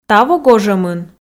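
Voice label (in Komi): таво гожӧмын, Komi, female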